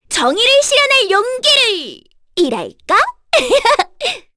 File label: Victory, kr; Cecilia; kingsraid